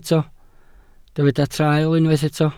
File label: "traul", Welsh